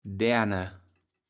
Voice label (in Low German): "Därne", kaevels platt